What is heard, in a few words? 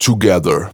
Phrase Pitched; Vengeance Samples